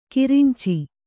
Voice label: "ಕರಂಚು", Kannada

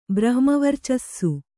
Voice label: "ಬ್ರಹ್ಮವರ್ಚಸ್ಸು", Kannada

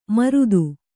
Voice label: "ಮೂರುತಿ", Kannada

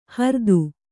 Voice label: "ಹ್ರೀತ", Kannada

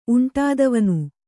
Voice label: "ಉಂಟಾದವನು", kannada

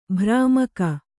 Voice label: "ಭ್ರಾಮಕ", Kannada

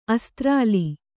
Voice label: "ಅಸ್ತ್ರಾಲಿ", Kannada

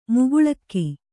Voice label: "ಮೋಕಳೀಕ", Kannada

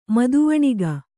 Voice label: "ಮದವಣಿಗ", kannada

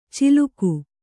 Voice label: "ಚಿಲುಕು", Kannada

